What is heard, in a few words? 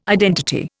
"identity", Indian